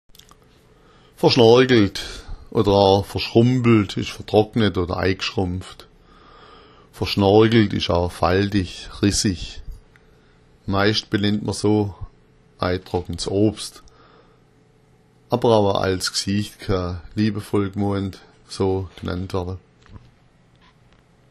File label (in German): "verschnorgelt", schwaebisch